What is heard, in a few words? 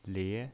"lie2", pinyin